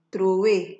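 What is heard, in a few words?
"troway", Nigerian Pidgin, female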